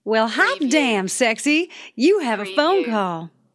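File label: Female Sexy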